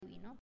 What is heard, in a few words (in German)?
"Vino" Spanisch